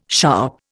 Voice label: "sharp", Indian

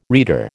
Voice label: "reader", American

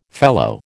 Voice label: "fellow", American

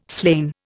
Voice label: "slain", Indian

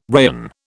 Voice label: "rayon", British

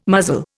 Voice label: "muzzle", Indian

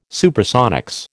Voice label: "Supersonics", American

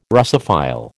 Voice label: "Russophile", American

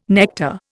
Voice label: "nectar", Indian

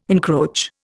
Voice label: "encroach", Indian

